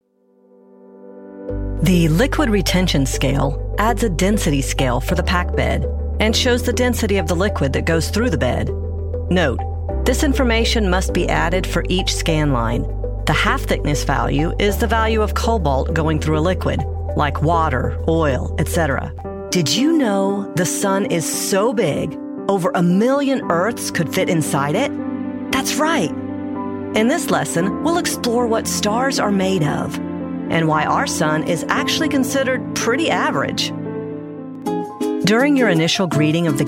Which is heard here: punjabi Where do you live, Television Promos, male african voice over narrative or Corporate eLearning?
Corporate eLearning